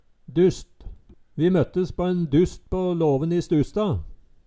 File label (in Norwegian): "dust", Numedalsmål; Ordet vert ikkje bøygd.